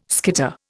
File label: "skitter", Indian